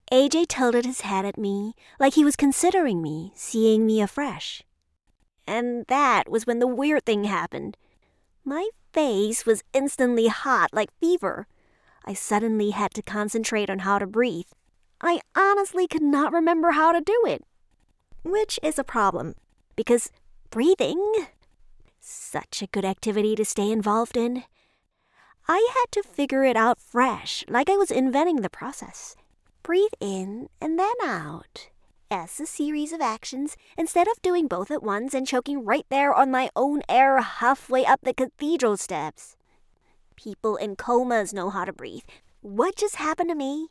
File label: Romance Female 1st Person